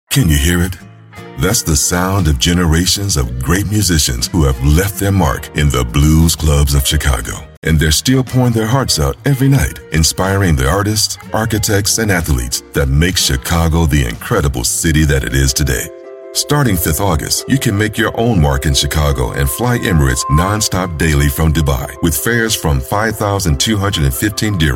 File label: Radio; Fly Emirates Chicago